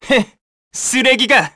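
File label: Vox Victory, Korean; Neraxis; kingsraid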